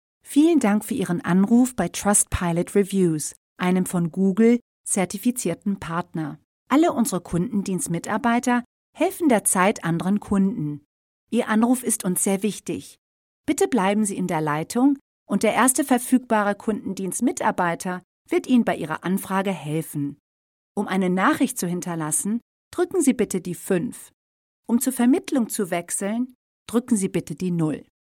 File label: German IVR